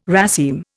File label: "raceme", Indian